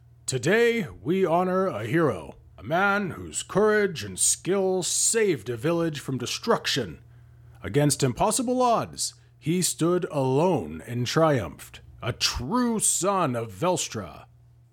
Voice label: Distinguished and authoritative noble with commanding presence and noble lineage, Audiobook NPC; Lord Norbert